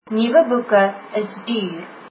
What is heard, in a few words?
new books are expensive, afrikaans